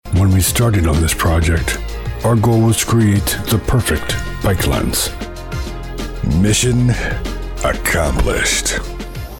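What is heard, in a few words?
American English, male, commercial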